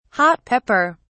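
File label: "hot pepper", British English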